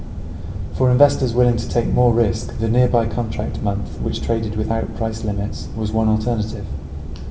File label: Reverb far